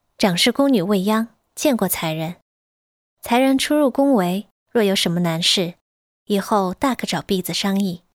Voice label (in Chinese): 沉稳御姐音古风